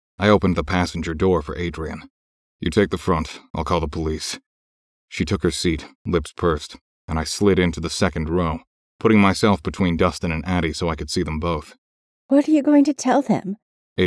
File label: duet